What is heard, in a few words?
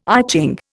"I Ching", Indian